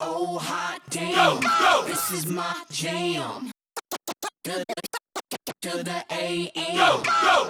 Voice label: oh hot damn this my jam scratch loop 4 bars 128bpm